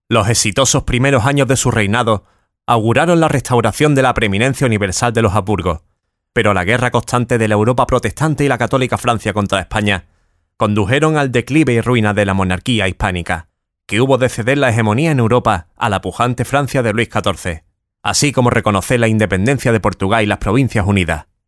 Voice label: locutor andaluz andalusian voiceover